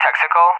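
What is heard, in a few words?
"texaco", English speech; AWACS; DCS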